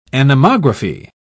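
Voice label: "anemography", British English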